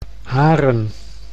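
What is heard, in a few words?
"Haaren", Dutch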